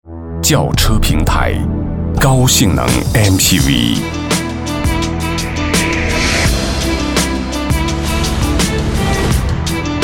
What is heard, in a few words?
VOICES ENGLISH Male Adult; KERSOUND